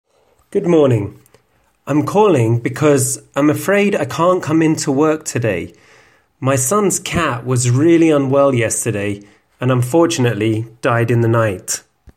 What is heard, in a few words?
phonecall